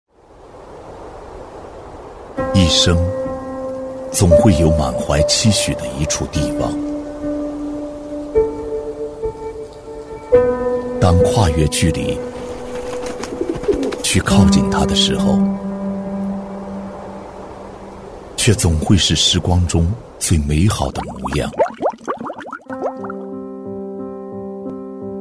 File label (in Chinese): 男 TVC房地产广告 走心质感; 大气激情 质感磁性; 悦湖地产